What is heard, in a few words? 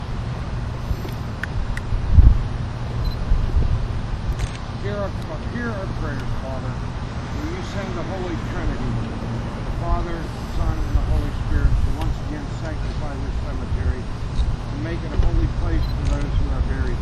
music then voice said come